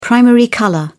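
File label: "primary colour", British English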